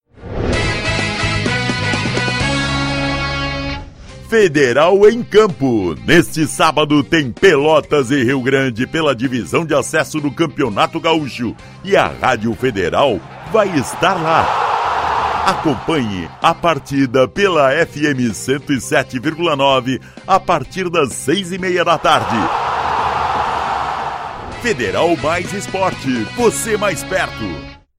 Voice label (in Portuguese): spot jogo